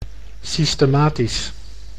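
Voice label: "systematisch", Dutch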